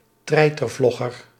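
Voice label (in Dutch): "treitervlogger", Dutch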